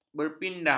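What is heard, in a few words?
"berpindah", Malay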